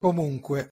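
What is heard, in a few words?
"comunque", Italian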